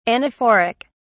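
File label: "anaphoric", British English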